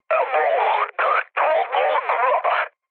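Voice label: Turkish damaged pilot, mood med, speech; War Thunder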